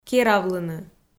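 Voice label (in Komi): "керавлыны", Komi, female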